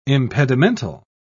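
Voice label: "impedimental", British English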